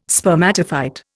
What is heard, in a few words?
"spermatophyte", Indian